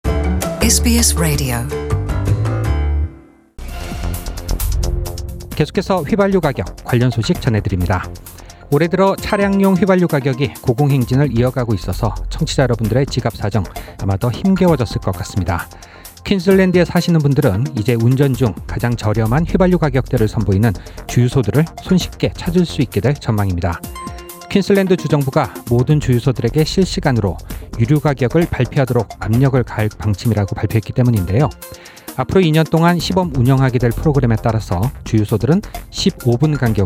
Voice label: korean; SBS Korean; Qld to trial fuel price monitoring scheme